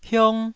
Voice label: "hiong1", taiwanese syllable

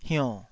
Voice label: "hionn3", taiwanese syllable

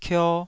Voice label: "kio2", taiwanese syllables

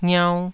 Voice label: "ngiau2", taiwanese syllables

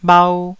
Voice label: "bhau1", taiwanese

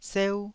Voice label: "seu1", taiwanese Syllables

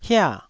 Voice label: "hia4", Taiwanese syllable

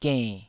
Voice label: "genn3", Taiwanese

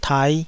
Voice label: "tai5", taiwanese Syllables

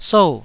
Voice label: "sou4", Taiwanese syllable

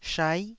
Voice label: "shai9", taiwanese Syllables

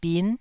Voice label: "bin9", taiwanese

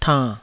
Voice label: "tann3", Taiwanese

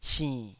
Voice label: "sinn3", taiwanese Syllables

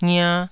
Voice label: "ngia2", taiwanese syllables

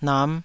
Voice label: "nam5", taiwanese

